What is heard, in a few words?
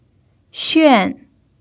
"xuan4", Mandarin pinyin